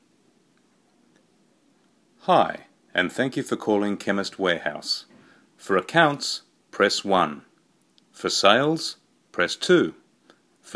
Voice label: Auto Attendant IVR Digital Assistant